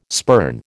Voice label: "spurn", American